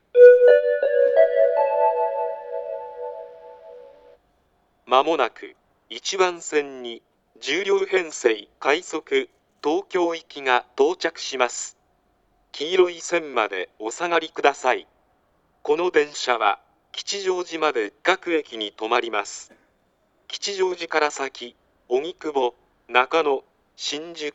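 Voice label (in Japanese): 接近放送, 旭型放送(男声), 青梅線; 休日快速東京行; 青梅駅のものよりイントネーションが不自然です。; 牛浜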